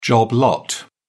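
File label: "job lot", British English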